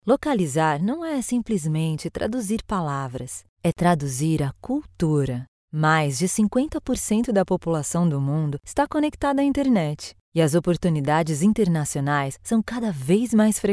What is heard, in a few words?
portuguese Brazil female